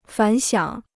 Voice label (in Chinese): "反响", Chinese